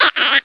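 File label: goblin ambient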